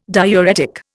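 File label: "diuretic", Indian English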